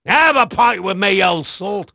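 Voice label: English male; Pirates Of The Caribbean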